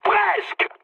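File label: bomb failure, mood high, French; War Thunder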